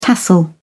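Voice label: "tassel", British English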